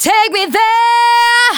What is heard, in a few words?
Phrase Female; Vengeance Samples; Phrases